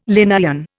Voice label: "Linnaean", Indian